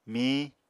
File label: "mihiñ", Apatani